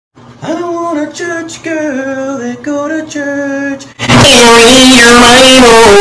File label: earrape loud